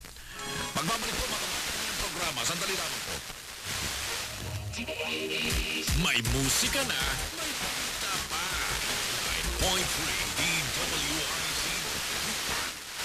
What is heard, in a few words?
News Radio; DWIZ; 89.3MHz